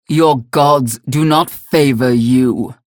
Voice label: vo match end victory; galena; unholy paladin; frag

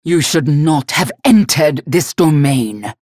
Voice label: chatter positive; galena; unholy paladin; frag

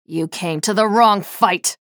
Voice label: chatter positive; nyx; frag

